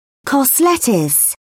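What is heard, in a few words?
"cos lettuce", British English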